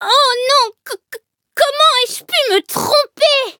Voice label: defeat, fr